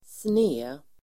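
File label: "sned", Swedish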